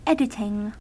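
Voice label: "editing", American English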